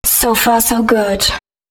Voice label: female, spoken hooks